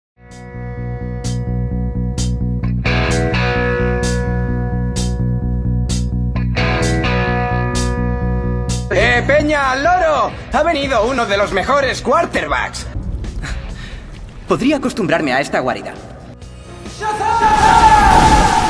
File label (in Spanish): DOBLAJE